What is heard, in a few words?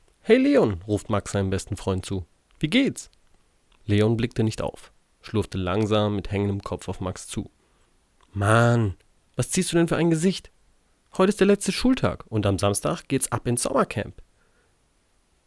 German, Male